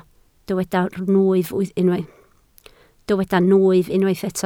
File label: "nwyf", Welsh